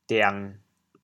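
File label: "diang1", Teochew, chaoyang; 癲僮; 癡呆、癡傻; 潮阳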